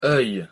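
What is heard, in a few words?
pronunciation "oeil", French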